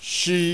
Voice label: "SH sh", Yoruba; ALPHABET

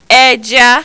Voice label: "eja", Yoruba; CONSONANTS; jaguda-jagunjagun-eja

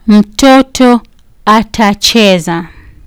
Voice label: "mtoto atacheza", Kiswahili

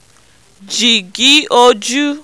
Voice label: "jigi oju", Yoruba

